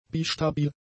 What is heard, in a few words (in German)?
"bistabil", German; adjectives